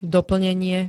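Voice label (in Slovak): "doplnenie", Slovak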